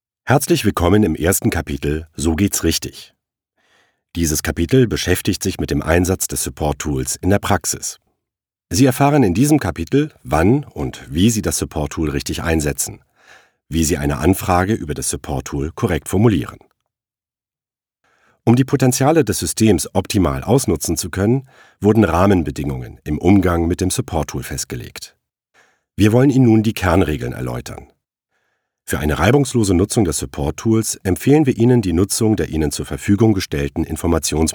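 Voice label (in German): elearning, deutsch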